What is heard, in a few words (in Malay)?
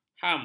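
"ham", Malay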